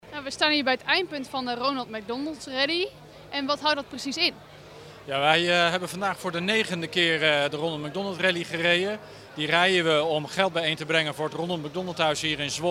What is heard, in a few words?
Radio Interview